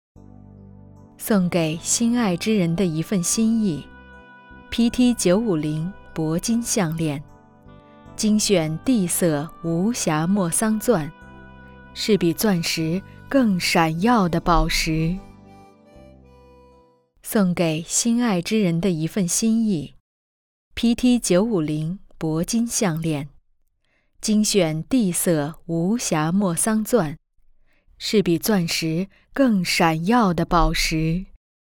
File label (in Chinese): 广告铂金项链